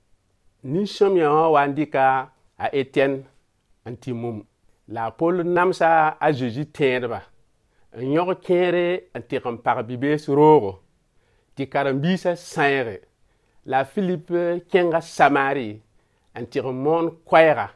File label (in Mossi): Mossi Moore; Philippe et l’eunuque éthiopien; Philip and the Ethiopian